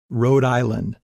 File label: Rhode Island English pronunciation